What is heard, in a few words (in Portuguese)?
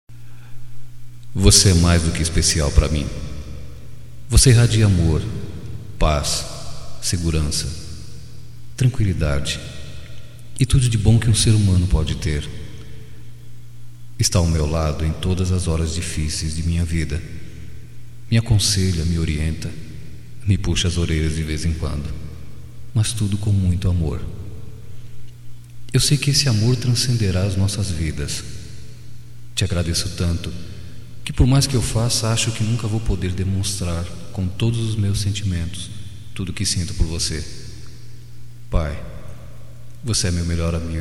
Agradec ao pai, Só Voz, masc; Telemensagens; AGRADECIMENTOS